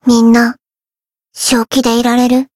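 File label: encount fear, Japanese; nagi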